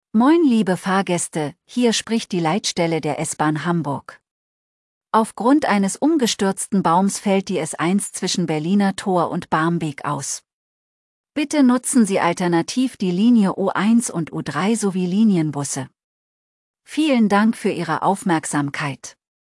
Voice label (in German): Stoeransage; DFI ShowCase